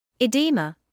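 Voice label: "oedema", British English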